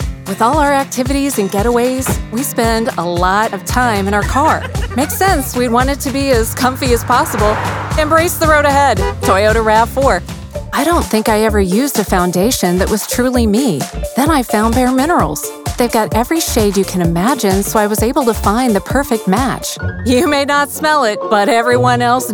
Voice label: Commercial Demo